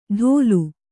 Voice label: "ಢೋಲು", Kannada

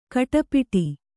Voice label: "ಖಟಿಪಿಟಿ", Kannada

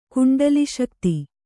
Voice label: "ಕುಂಡಲಿಶಕ್ತಿ", Kannada

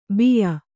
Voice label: "ಬಿಯ್ಯ", Kannada

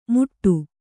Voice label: "ಮುಟ್ಟು", Kannada

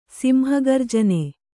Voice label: "ಸಿಂಹಗರ್ಜನೆ", Kannada

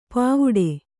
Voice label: "ಪಾವುಡೆ", Kannada

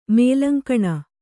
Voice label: "ಮೇಲಂಕಣ", Kannada; mēlankaṇa; noun; = ಮೇಲ - 12.

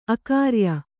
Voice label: "ಅಗ್ರಿಯ", Kannada

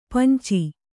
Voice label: "ಪಂಚ", Kannada

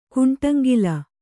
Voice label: "ಕುಂಟಂಗಿಲ", Kannada

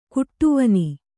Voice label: "ಕಡುವೇನೆ", Kannada

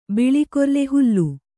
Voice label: "ಬಿಳಿಕೊರ್ಲೆ ಹುಲ್ಲು", Kannada

